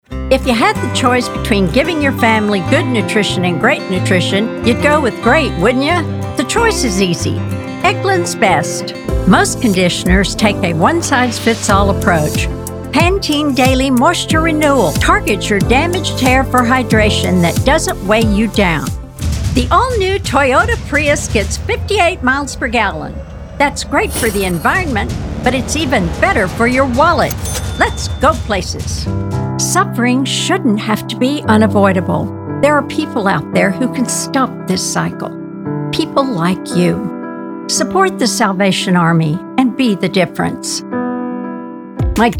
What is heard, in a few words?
Commercial